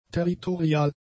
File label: "territorial", German; adjectives